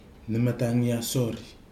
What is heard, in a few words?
"nɨmatagi asori", Nafe; cyclone